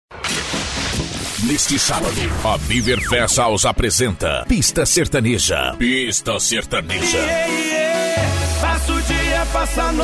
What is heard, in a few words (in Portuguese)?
locutor